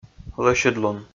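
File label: "лӧсьыдлун", Komi, male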